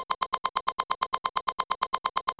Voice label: voice glitch; ゆめ2っき